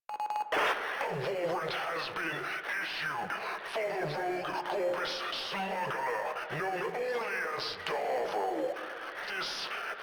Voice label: Announcements, Radio Interceptions; Grineer Announcer

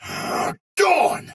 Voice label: Sight Lost Shout Soldier Sword Agent Male, Battle; Sinecure

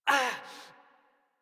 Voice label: Generic Land Pain Operator Voice en; Operator Mission

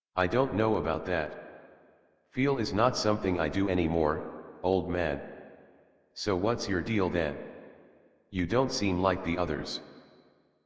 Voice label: Adult, en, Dialog

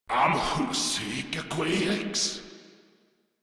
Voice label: Patrol, Grineer Male; Warframe

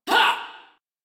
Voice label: Pain; Warframe; Venkra Tel

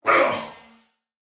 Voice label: Pain, male soldier; Dialog; Barks; Battle; Sinecure

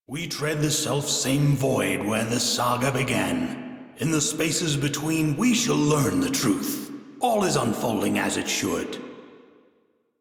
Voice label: Crew Idle, Male; Dialog; en; Warframe; Railjack Crew; Arbiters Hexis

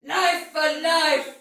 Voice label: See Ally Die Soldier Female, Battle Barks; Dialog; Sinecure

